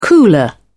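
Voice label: "cooler", British English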